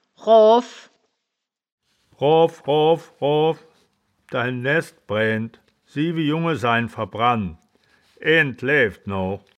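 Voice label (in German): "roaw", wittlicher woerterbuch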